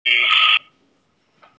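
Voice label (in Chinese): "an1 wei4" Chinese, female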